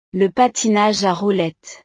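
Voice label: "le patinage a roulettes", French; deportes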